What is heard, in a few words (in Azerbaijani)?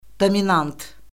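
"dominant", Azerbaijani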